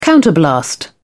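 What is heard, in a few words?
"counterblast", British English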